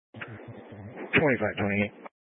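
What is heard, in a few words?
Sheriff Dispatch, scanner audio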